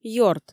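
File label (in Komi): ёрт, Komi, female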